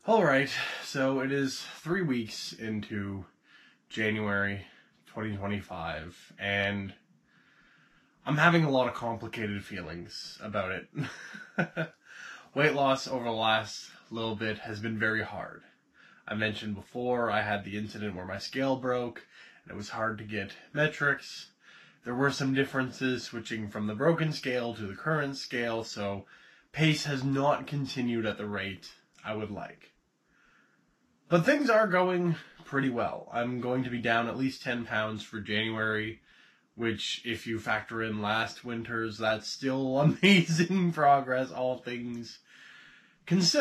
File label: vlog